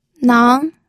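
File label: "nang", second tone, pinyin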